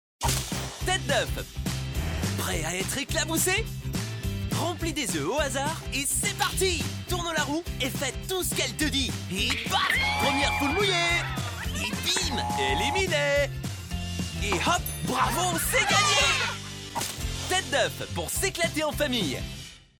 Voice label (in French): spot tv jeu enfants, publicite, voix; tete d'oeuf